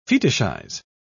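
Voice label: "fetishize", English, British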